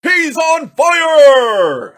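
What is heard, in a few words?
on fire, basketball announcer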